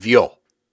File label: Syllables and Imaginary Words, Hard, Viking Vocal